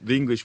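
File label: thinglish, Standard Southern British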